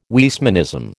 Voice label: "Weismannism", American